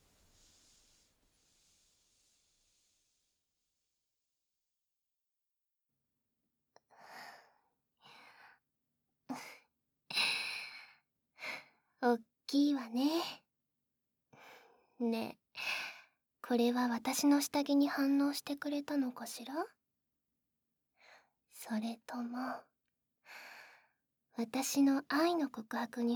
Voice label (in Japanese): バイノーラル; まずは、口でお礼を……ね♪; エッチパート